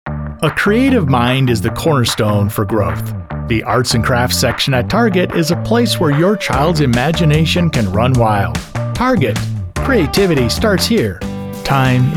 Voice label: commercial